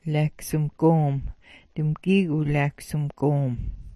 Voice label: "laawksmgoom", smalgyax

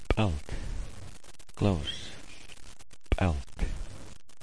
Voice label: "p'alt", smalgyax

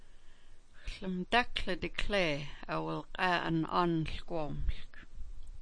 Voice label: "dikLee", smalgyax

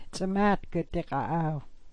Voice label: "diKa'aaw", smalgyax

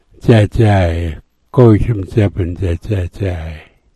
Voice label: "dzadzay", smalgyax

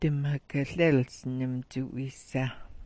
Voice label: "'wii sah", smalgyax

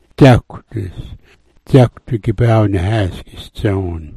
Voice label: "dzakwdis", smalgyax

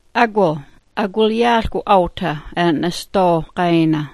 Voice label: "agwil", smalgyax

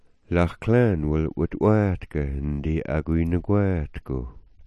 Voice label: "agwinugwaat", smalgyax

